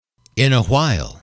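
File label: "in a while", English, male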